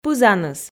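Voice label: "пызаныс" Komi, female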